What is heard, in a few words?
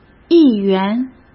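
"议员", Mandarin Chinese; chinese-flashcards; hsk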